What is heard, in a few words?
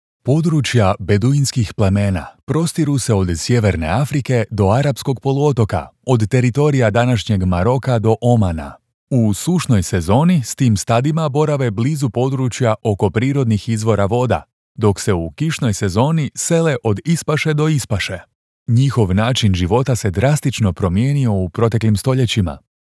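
Croatian, male, documentary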